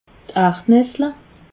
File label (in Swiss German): "ardnessle", alsace